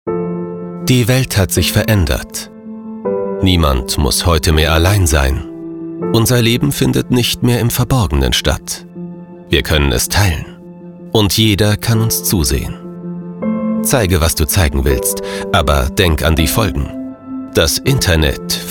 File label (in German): Sprecherdemo Internet